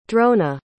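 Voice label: "drona", English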